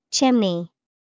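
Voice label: chimney, English vocabulary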